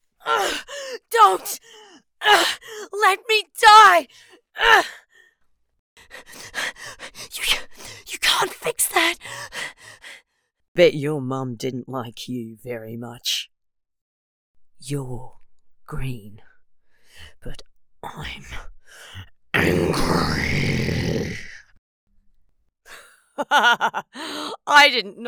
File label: Recruit Female